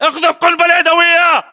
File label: order action grenade, battle chatter, english